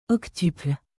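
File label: "octuple", French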